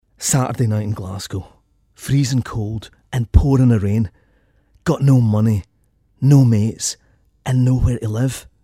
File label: scottish